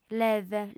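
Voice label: "leve", female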